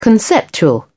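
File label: "conceptual", British English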